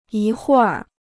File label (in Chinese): "一会儿", Chinese